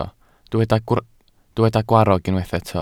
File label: "gwarrog", Welsh